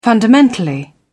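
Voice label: "fundamentally", British English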